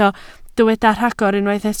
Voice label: "rhagor", Welsh